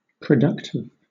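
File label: "productive", British English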